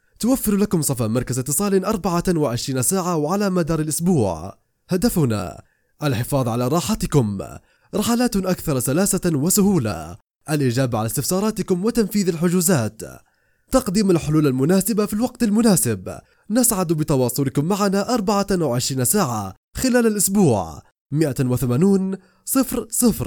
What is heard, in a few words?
Arabic